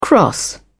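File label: "cross over", British English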